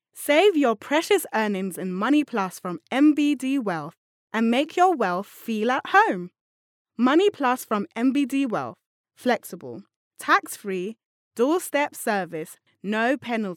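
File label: British English, female, voice over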